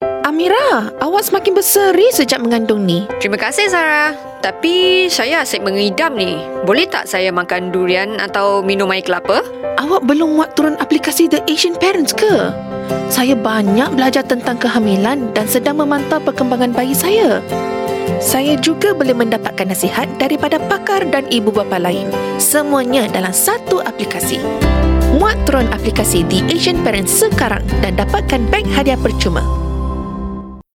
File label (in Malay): Malay; Voice Demo